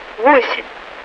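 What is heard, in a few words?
Russian speech, Mi-8MT RI65; DCS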